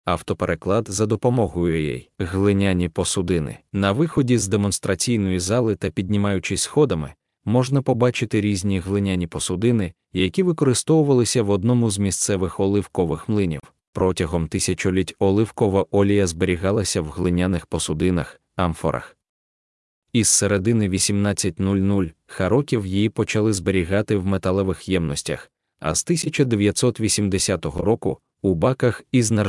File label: ukrainian